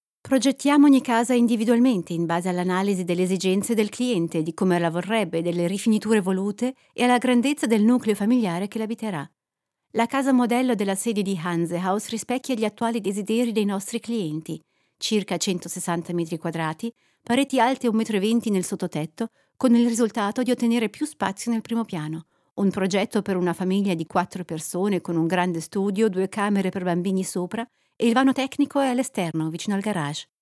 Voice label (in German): Imagefilm italienisch